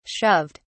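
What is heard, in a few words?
"shoved", British English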